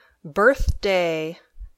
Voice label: "birthday", English